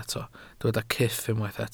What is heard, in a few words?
"cyff", Welsh